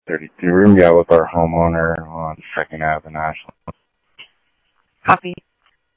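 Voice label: Mesa police department, scanner audio trunk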